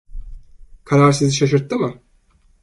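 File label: "karar sizi sasirtti mi", Turkish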